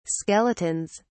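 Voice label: "skeletons", British English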